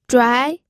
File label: "zhuai2", pinyin